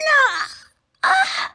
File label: Female; baldurs1